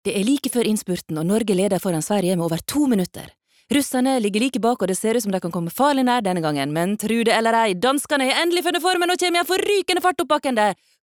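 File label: Norwegian dub commentator sunnmore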